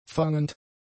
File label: "fangend", German; adjectives